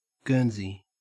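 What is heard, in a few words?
"guernsey" Australian English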